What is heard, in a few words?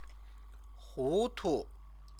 "hutu", Chinese